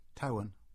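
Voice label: English; Towan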